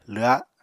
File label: "lwha", Apatani; Household Items